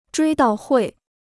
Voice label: "追悼会", Chinese

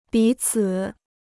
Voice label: "彼此", Chinese

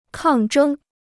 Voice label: "抗争", Chinese

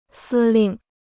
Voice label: "司令", Chinese

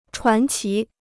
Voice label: "传奇", Chinese

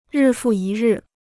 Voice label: "日复一日", Chinese

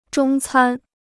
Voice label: "中餐", Chinese

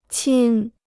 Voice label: "亲", Chinese

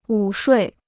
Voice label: "午睡", Chinese

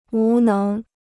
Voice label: "无能", Chinese

